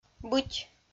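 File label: "быть", Komi-Zyrian, female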